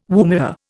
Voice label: "woomera", Indian